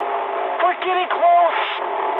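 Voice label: English near waypoint mood high; War Thunder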